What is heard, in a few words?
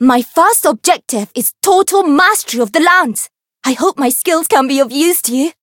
Voice story; komachi